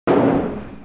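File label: "bu4yong4" Mandarin Chinese, female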